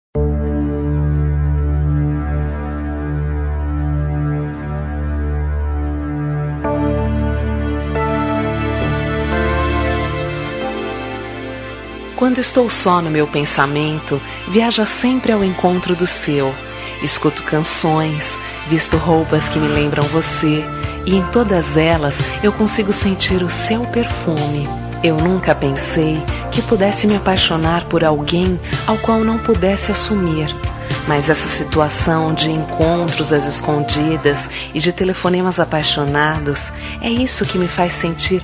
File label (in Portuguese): ROM AMOR PROIBIDO FEM; Telemensagens